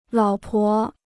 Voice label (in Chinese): "老婆", Chinese